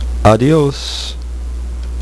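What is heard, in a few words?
"adios", spoken; HyperHangMan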